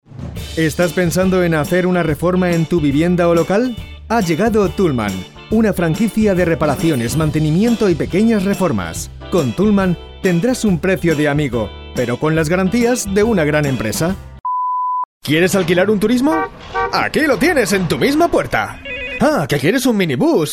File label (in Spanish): comercial